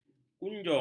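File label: "kunjung", Malay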